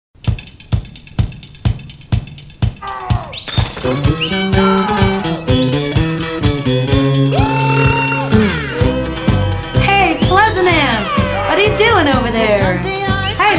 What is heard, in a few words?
commercial Magazine; Spin